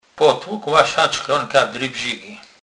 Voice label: "drybzigy", kurpie; slownik

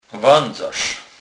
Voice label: "wedzarz", Kurpie

